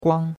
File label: "guang1", chinois; Cours de Chinois